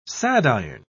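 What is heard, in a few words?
"sadiron", English, British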